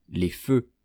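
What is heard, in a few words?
"les feux", French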